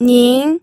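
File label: "ning", Mandarin Chinese, second tone; pinyin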